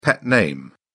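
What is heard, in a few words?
"pet name", British English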